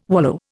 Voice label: "wallow", Indian